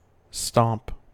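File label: "stomp", US English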